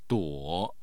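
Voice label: "duo", Chinese, third tone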